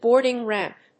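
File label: "boarding ramp", English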